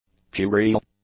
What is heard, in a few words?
"puerile", english; adjectives